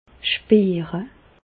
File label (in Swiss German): "spiire", Bas Rhin; medecine; sentir